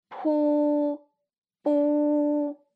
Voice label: "pubu", Chinese